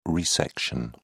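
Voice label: "resection", British English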